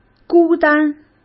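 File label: "孤单", Mandarin Chinese; chinese flashcards; hsk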